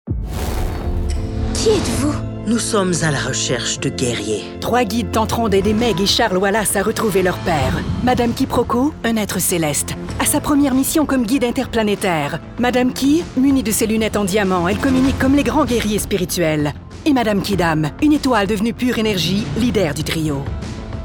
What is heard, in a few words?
French canadian movie trailers